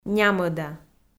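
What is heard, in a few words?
"нямӧда", Komi, female; kpv audio dict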